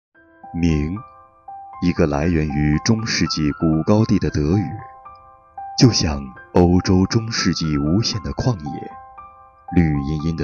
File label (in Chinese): 叙述围巾